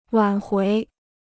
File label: "挽回", Chinese